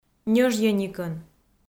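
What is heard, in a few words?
"ньӧжйӧникӧн", Komi, female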